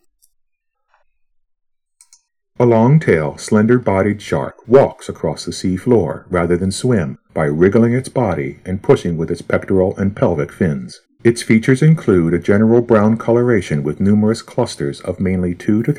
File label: Male